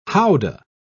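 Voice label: "houdah", British English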